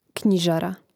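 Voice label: "knjižara", Croatian; Mrežnik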